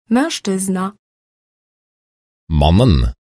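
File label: "mezczyzna", Polish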